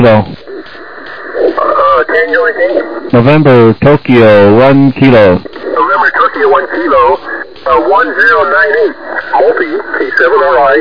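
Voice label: upper sideband 21MHz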